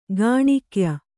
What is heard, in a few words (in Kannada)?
"ಗೋನಿಕಾಯ", Kannada